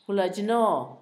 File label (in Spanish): ayuda, Zapoteco, Asunción Ocotlan; Sustantivos; INALI